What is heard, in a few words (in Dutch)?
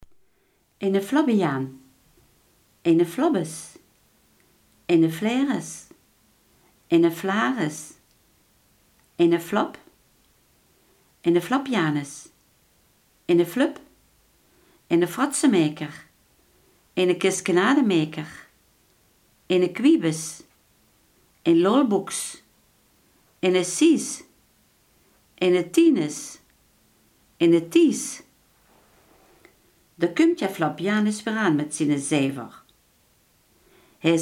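iemand die grapjes maakt, Limburgse gesproken woordenboeken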